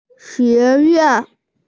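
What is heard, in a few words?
"xue2yuan4", Mandarin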